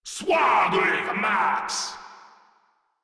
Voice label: Reload, Grineer Male; Warframe

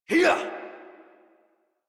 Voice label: Adult Operator Melee Swing exert; New War

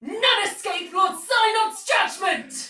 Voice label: Sight Lost Shout, Soldier Female, Battle; Dialog; Barks; Sinecure

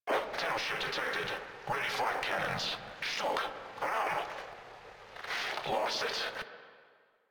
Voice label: Controller, Radio Interceptions; Customs; Grineer Controller

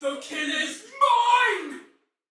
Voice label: Attack Heavy Female; Dialog; Barks; Battle; Sinecure

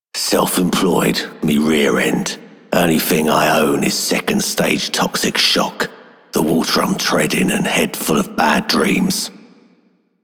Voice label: Male Worker, Male One, en; Solaris Venus

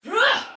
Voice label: Attack, Soldier Female, Battle; Sinecure

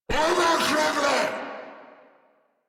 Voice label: Player Spotted, Battle Barks, en; Grineer Ghoul Drill Sargeant

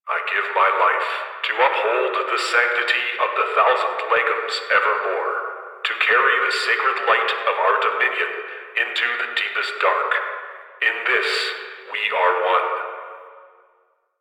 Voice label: Announcer Mantra; Cinematics; Warframe; The New War; Zariman

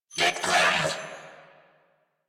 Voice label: Player Spotted Grineer Male; New War; Narmer

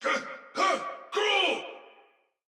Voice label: See Player Shout, Soldier; Sinecure; en

